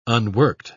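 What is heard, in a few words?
"unworked", English, British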